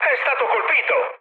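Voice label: Italian aircraft destroyed mood high, speech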